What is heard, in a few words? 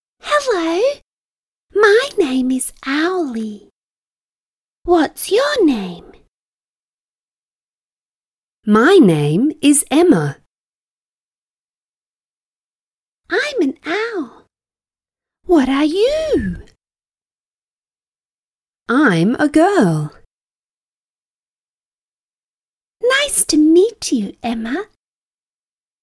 englisch hoeruebung; arbeitsblatt; spatzenpost; Schuelerzeitschriften